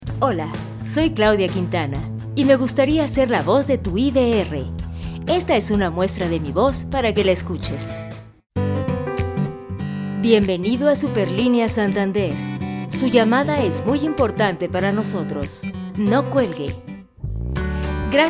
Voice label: IVR